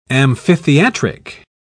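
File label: "amphitheatric", British English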